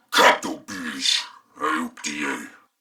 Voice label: Patrol Male, en; Corpus Venus; Warframe; CorpusVenusMaleB